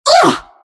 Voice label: anger; Naga Siren